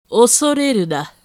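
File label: female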